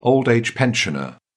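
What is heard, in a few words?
"old-age pensioner", British English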